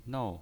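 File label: "nou" fourth tone, pinyin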